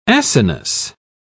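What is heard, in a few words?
"acinus", British English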